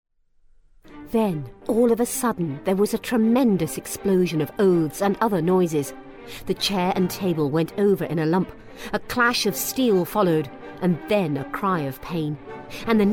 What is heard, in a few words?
Narration